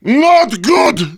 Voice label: negative vocalization; Heavy